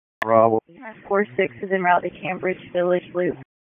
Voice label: PS TAC, p25